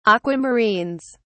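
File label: "aquamarines", British English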